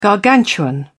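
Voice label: "gargantuan", British English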